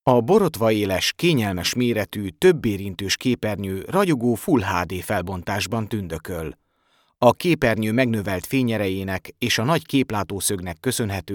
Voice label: corporate hungarian male

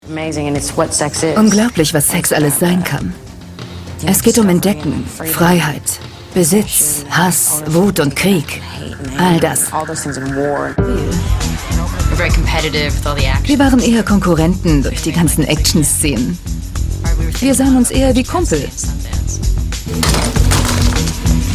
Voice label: voice over; rtl; 10 jahre brangelina angelina jolie

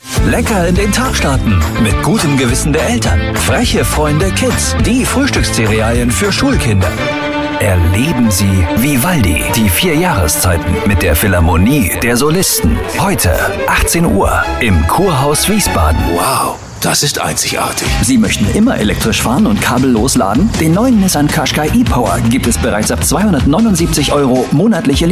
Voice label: sprecherdemo commercials; Sprecher in Leipzig; Muttersprache Deutsch

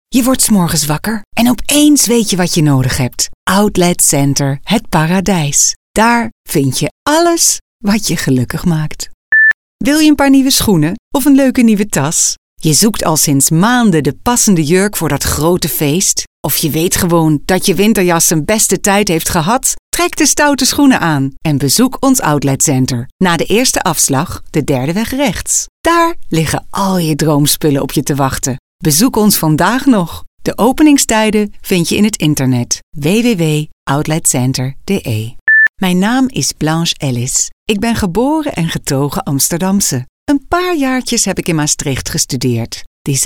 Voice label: casting dutch voice artist

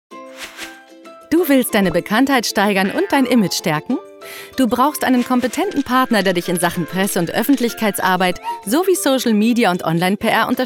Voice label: imagefilm snippet; Berlin; Muttersprache Deutsch

